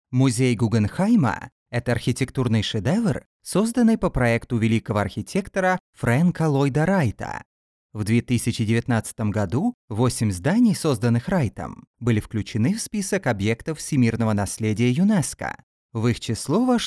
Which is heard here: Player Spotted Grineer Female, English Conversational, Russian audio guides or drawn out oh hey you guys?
Russian audio guides